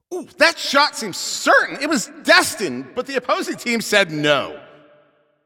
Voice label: Announcer Goalie Save